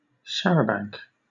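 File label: "charabanc", British English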